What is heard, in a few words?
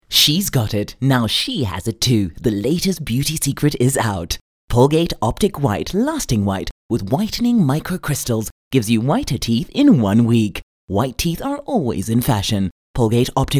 English Hard Sell; OSM Talent